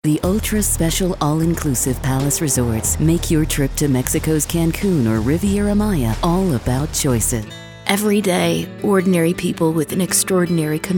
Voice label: Commercial, American FEMALE; voice-over-demos